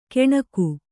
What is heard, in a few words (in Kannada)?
"ಕೆಣಕು", Kannada